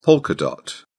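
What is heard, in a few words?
"polka dot", British English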